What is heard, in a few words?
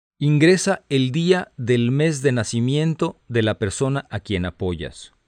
Spanish, hotline